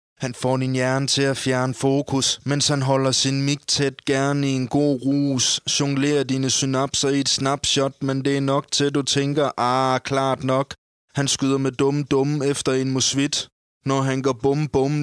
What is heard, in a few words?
adult groove - rap